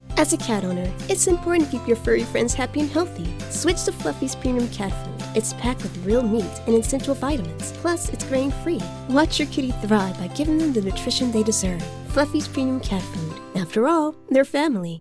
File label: Commercial Cat Food Ad; Voice123